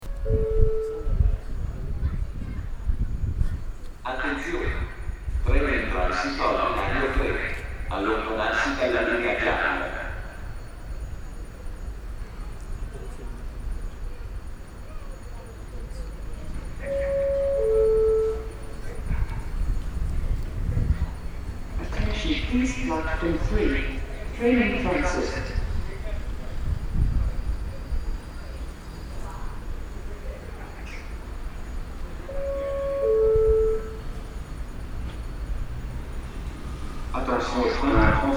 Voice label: delai annonces gare

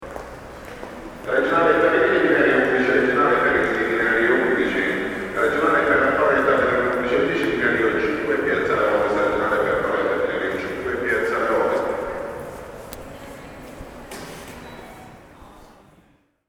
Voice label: reverberation annonce gare

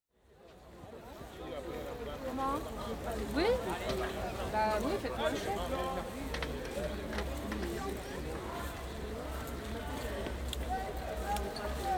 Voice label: Harangues lointaines et proche negociation; Metabole

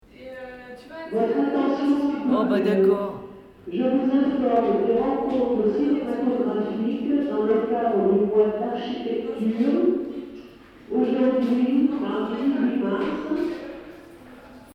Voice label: irruption annonce

